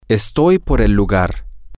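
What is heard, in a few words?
I am by the place, Spanish, male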